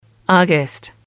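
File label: "august", English, female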